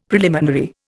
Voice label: "preliminary", Indian; Dictionary